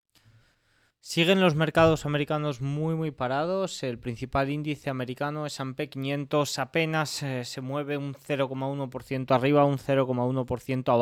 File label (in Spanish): radio; noticias bolsa